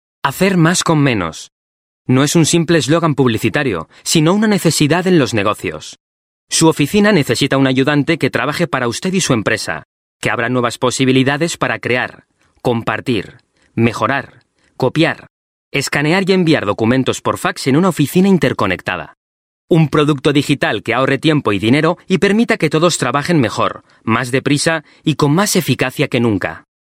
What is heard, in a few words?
European Spanish Narration, Male